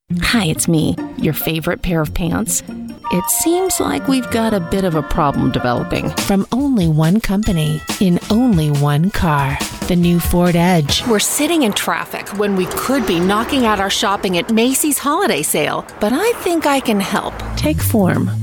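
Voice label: female English US commercial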